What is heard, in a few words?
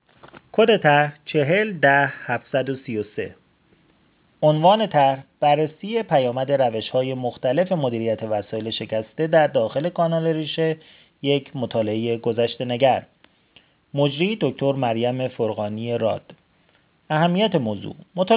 voice recorder; tarjoman